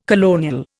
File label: "colonial", Indian